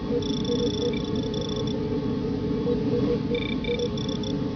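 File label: computer talk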